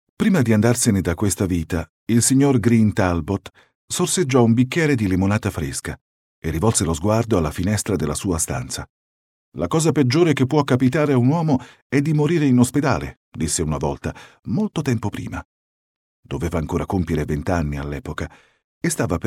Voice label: tutorial voiceover